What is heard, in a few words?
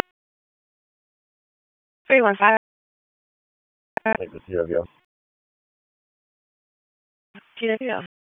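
Police Northeast District Dispatch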